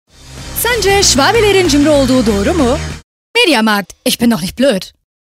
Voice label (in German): Funkspot; Media Markt